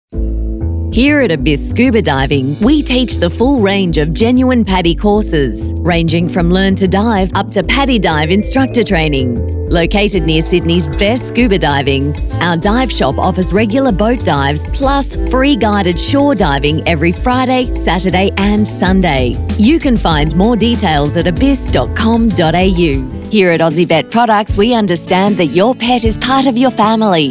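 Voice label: Australian PHONE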